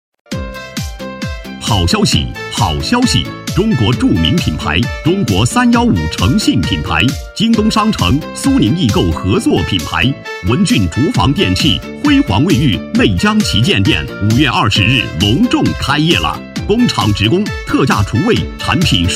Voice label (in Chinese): 男 广告 开业语音